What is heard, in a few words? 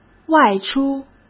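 "外出", Mandarin Chinese; chinese flashcards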